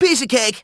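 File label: "piece of cake!" male voice; sonic; vc roger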